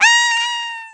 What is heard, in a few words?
"nooo", American; Worms Armageddon